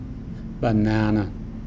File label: "banana" English